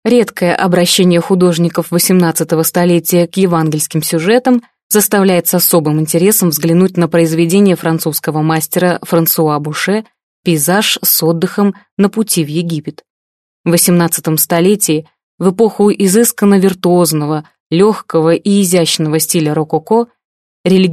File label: russian female